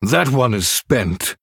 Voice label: Male Human ERROR MINION ATTACKED

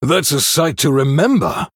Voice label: Male Human

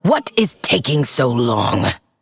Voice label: Female Undead Thinking

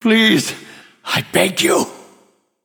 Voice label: Male Nightborne

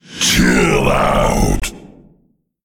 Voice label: Male Elemental Attack

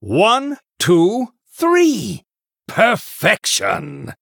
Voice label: Male Human Triple

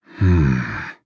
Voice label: Thinking

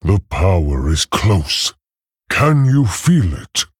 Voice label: Male Tauren Primalist; Thrall Vision Destroyed

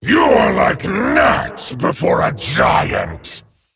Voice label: male dragon, Threaten

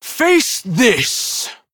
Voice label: Female Tauren; Helka; Valeera; Hero Power

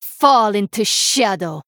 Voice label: Female Draenei; Shadow Priest Xyrella

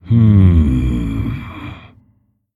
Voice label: Male Mogu Thinking

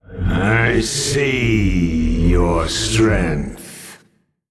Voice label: Male Old God Emote Well Played

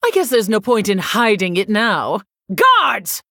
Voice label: Female Human

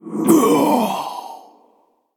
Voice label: Male Spirit Death

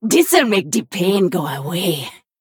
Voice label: Female Troll Play

